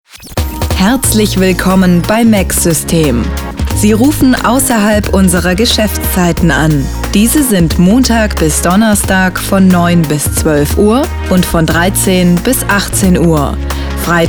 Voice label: anrufbeantworter der firma; mac system

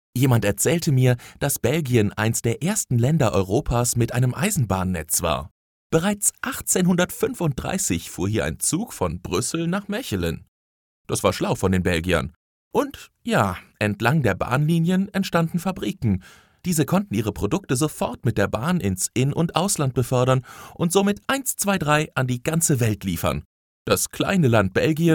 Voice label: audioguide; belvue bruessel

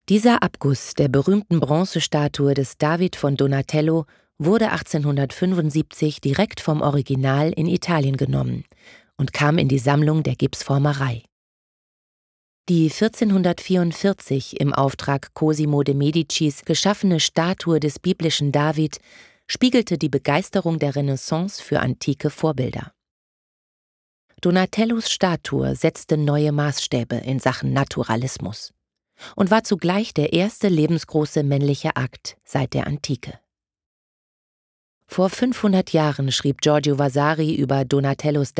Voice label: audioguide

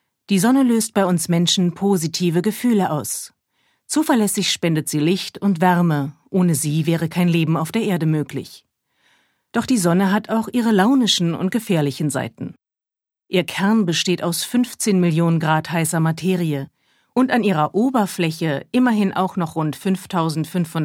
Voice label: voiceover Sonnenflecken

